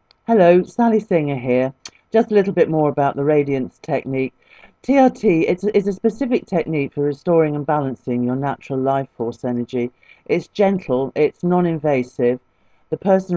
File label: VOICE OVER; TRT